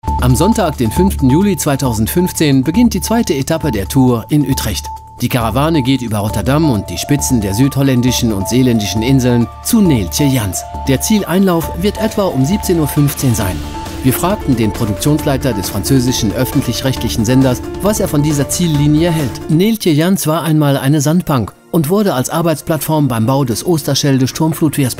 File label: German promos